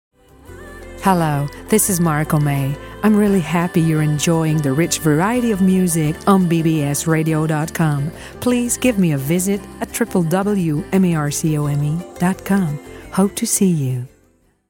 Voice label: bbs radio promo